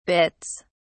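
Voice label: "bitts", British English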